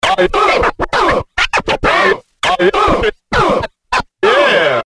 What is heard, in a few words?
"ah yeah" scratch 100 bpm